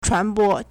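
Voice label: "chuánbō", Chinese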